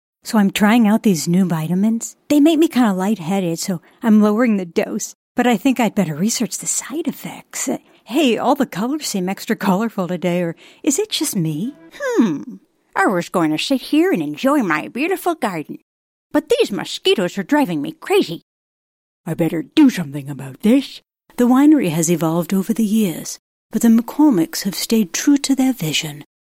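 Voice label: American English, female, characters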